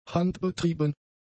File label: "handbetrieben", German; adjectives